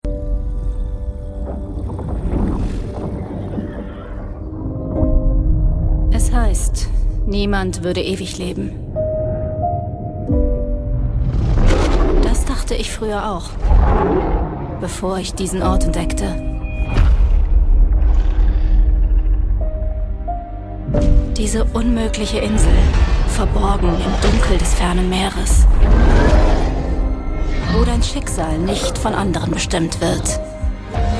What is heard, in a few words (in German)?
Promo Trailer DE Game Trailer mysterious immersive, voiceover; New World Aeternum; dark